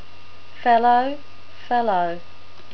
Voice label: "fellow", NZ English